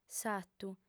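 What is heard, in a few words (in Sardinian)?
"satu", female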